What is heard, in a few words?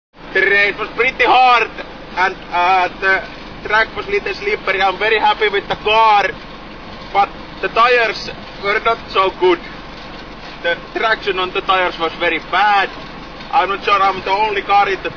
finnish rally driver